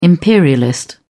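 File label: "imperialist", British English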